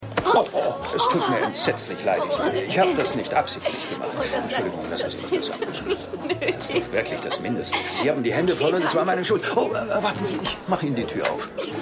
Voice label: Cafe Kundin; Stimmproben; Rosa Pullover; Was Frauen wollen

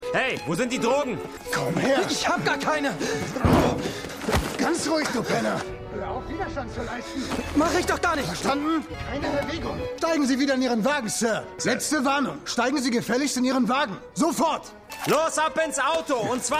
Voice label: Polizist Junger Mann; Das Buch des Wiederaufbaus: Kapitel eins: Kollateralschaden; Black Lightning

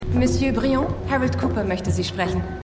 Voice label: Assistentin

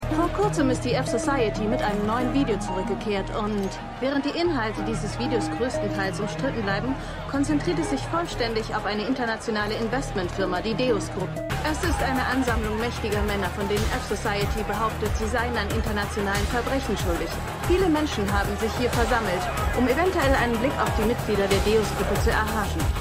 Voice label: Reporterin, Stimmproben

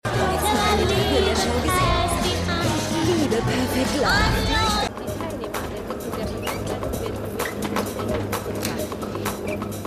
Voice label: Durchsage; Lebe Lieber Ungewoehnlich; Ich Liebe Perfect Love

